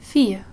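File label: "vier", German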